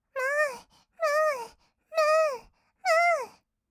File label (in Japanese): むーむー, kawaii voice, 合いの手; 1200 kawaii voice!!; ASMR